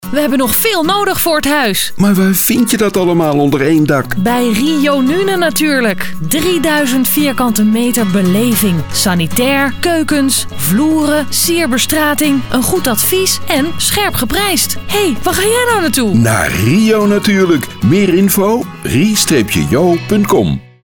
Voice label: Commercial; RiJoNuenen